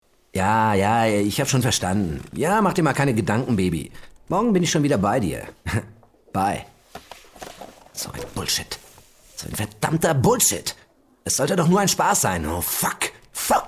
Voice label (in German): Hörspiel